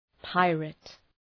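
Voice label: "pirate", English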